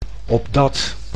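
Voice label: "opdat", Dutch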